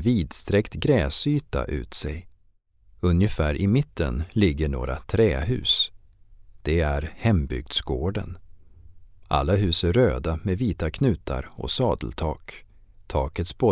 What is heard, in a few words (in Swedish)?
Syntolkning; Syntolkning av Ekbacken; akerskanal ljudguider